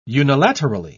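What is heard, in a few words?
"unilaterally", British English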